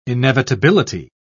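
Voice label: "inevitability", British English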